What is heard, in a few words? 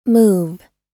"move", US